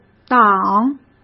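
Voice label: "党", Mandarin Chinese; chinese flashcards; hsk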